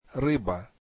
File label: russian fish